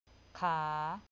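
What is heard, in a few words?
"ขา", Thai, rising tone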